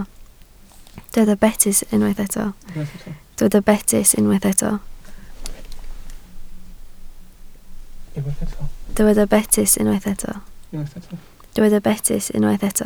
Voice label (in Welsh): "betys", Welsh